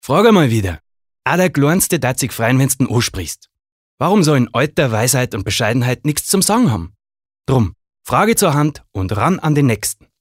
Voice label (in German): Dialekt Bayerisch